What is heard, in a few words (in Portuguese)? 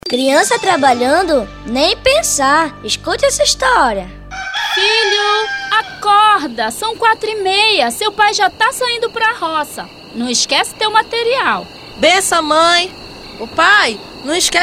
Spot Rural Trabalho Infantil